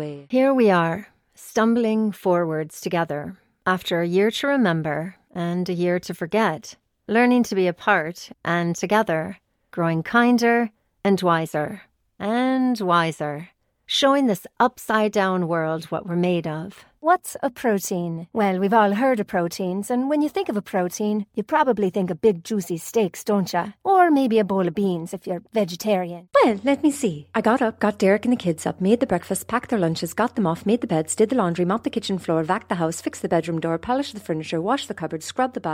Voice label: female English American and Irish, Irish accent compilation; irish southern